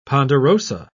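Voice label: "ponderosa", British English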